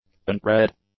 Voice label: "untread", english; adjectives